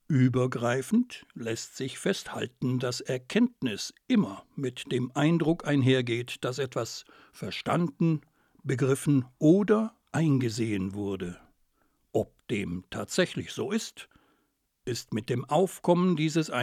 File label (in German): märchenerzähler